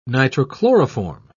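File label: "nitrochloroform", British English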